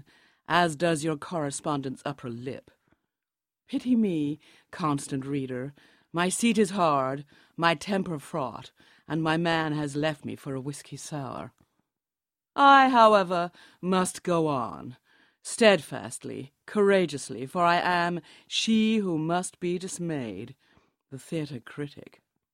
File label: radio play; All My Burning Bridges; Dorothy Parker